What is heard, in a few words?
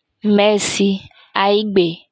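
"Mercy Aigbe", Yoruba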